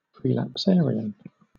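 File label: "prelapsarian", British English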